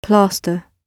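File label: "plaster" spoken, British English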